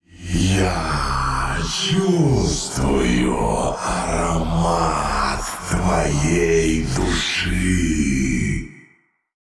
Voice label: Male Old God Play

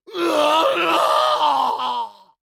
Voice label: Male Dwarf Death; Hearthstone; датамайн обновления 5.0

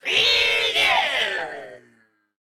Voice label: Male Mech Death

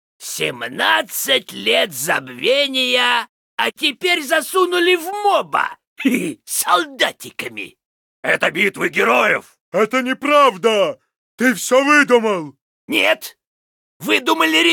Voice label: Pissed; Heroes of the Storm; LostVikingsBase

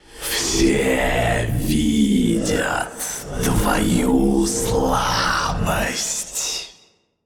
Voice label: Male Old God In Play; Hearthstone; датамайн обновления 5.0

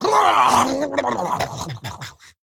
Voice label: Male Human Death

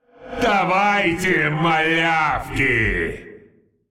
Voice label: Male Giant Play

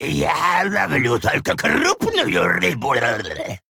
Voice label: Male Human Play; Hearthstone; датамайн обновления 5.0

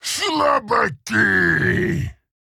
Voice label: Male Orc Attack

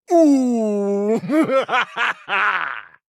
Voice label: Male Blood Elf Trigger

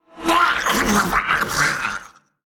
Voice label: Male Qiraji Death; Hearthstone; датамайн обновления 5.0

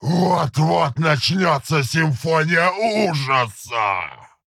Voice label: Male Orc Play; Hearthstone; датамайн обновления 5.0

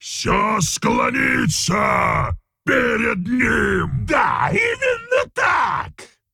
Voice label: Male Ogre Play

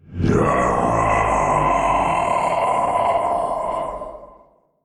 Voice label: Male Old God Death

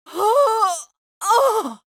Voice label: Female Human Death; Hearthstone; датамайн обновления 5.0

